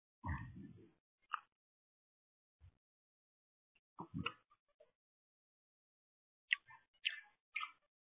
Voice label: Voicemail